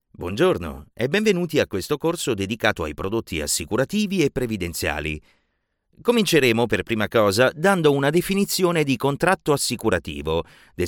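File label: Italian, E-Learning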